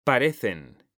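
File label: "parecen", Spanish, male; mekanta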